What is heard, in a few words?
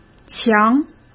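"墙", Mandarin Chinese; chinese-flashcards; hsk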